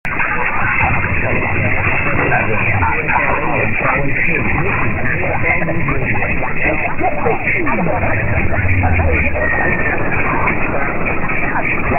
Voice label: mw dxing; 1160; ksl

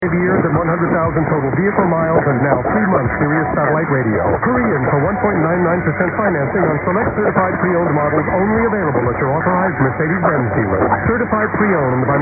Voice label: ridiculous disclaimer; 760 wjr inc

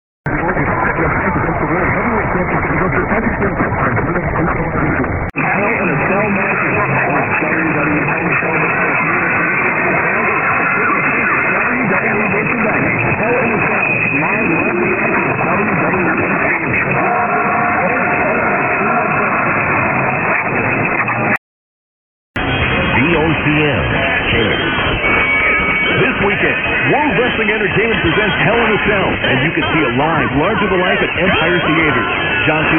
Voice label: ad with w call; eg of vocm; eg of possible confusion

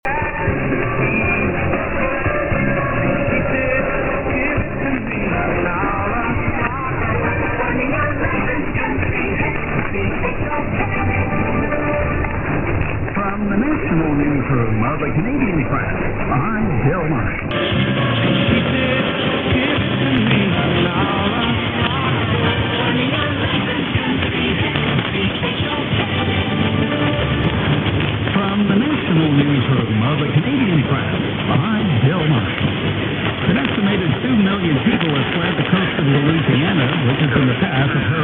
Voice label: lsb then sync; chok; 1070

